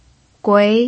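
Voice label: "gui" second tone, pinyin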